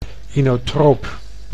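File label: "inotroop", Dutch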